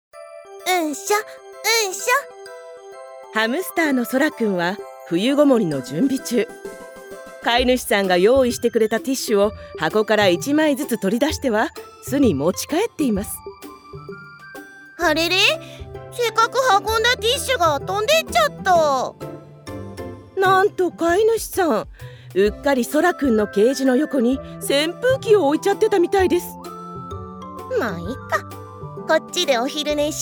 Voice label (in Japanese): ナレーション